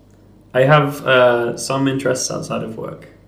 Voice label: interviewee male